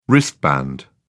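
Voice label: "wristband", English, British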